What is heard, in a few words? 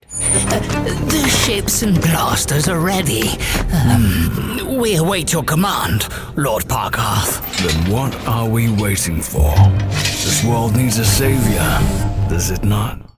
Servant Creaturesque, French Voice Actor